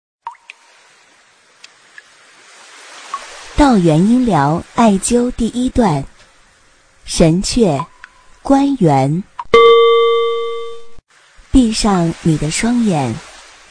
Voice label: 女 解说 理疗解说

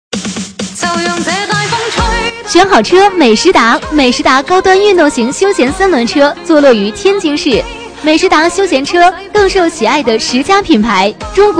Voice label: 女 促销; 美时达三轮车

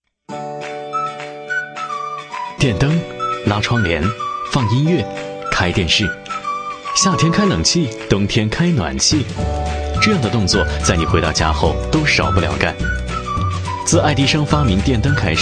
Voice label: 男 广告 电视广告类

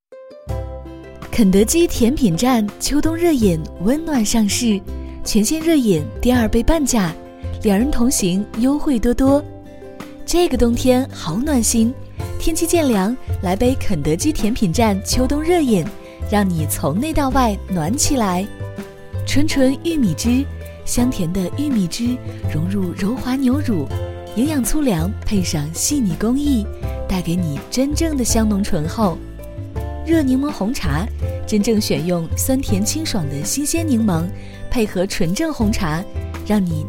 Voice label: 女 甜美广告; 肯德基甜站

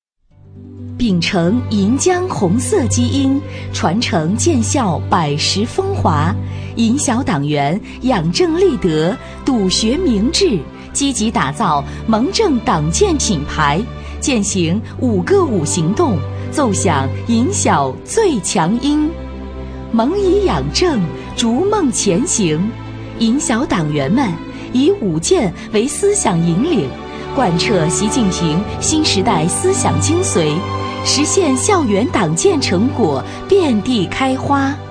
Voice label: 女4号专题; 校鄞江

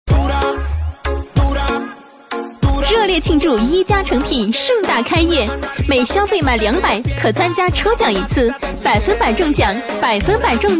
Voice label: 女47号广告 服装店（激情响亮）

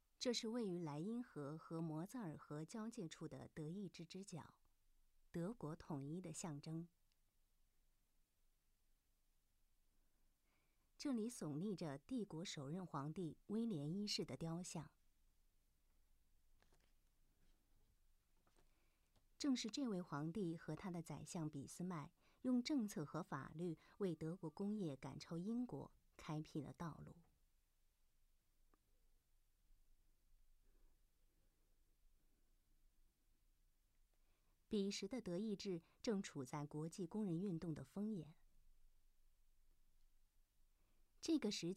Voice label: 女 纪录片; 德国工业革命

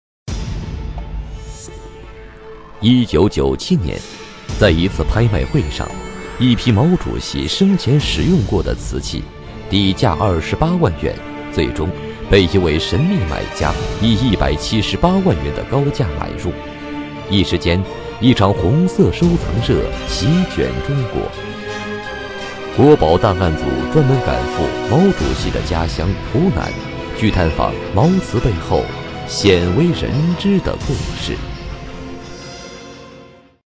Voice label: 男 模仿 神秘; 国宝档案

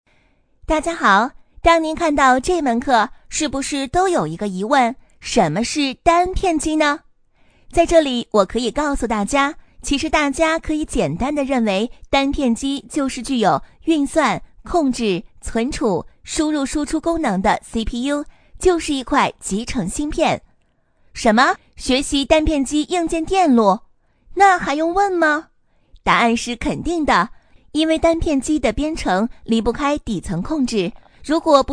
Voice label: 女 解说; 单片机

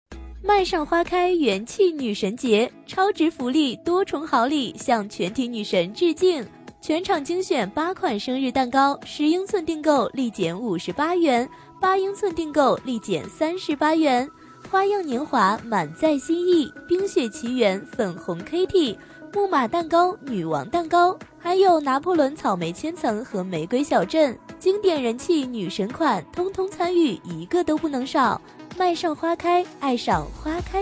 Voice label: 女 促销 甜美

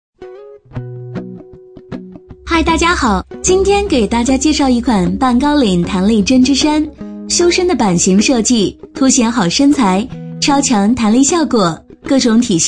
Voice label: 女 解说 针织衫; 淘宝针织衫

